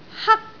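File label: "hak1", Cantonese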